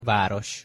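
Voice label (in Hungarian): "varos" Hungarian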